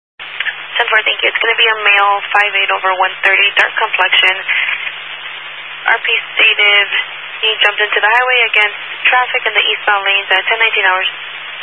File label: Highway Patrol, Phoenix Metro West Area, scanner